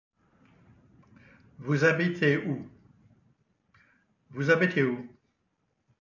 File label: French where you live